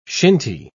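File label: "shinty", British English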